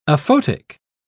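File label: "aphotic", British English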